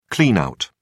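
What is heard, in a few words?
"clean out", English, uk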